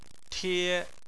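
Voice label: "tie", Chinese, first tone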